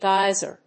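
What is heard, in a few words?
"geyser", English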